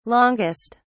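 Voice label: "longest", British English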